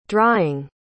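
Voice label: "drying", English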